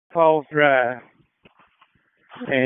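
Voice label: Fire Department Dispatch, P25; wake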